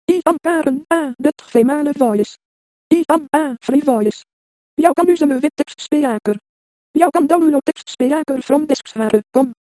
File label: dutch female